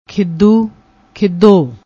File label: "khidoo", Punjabi